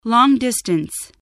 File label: "long-distance", spelling